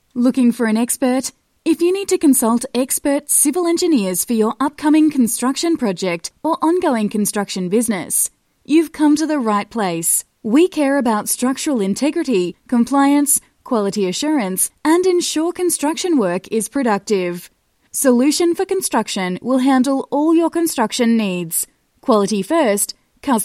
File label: website background voice